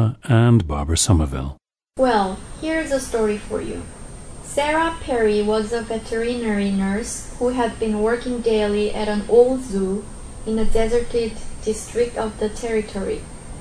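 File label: korea, International Dialects of English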